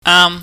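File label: "aam", Cantonese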